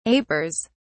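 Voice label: "apers", British English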